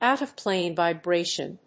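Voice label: "out-of-plane vibration", English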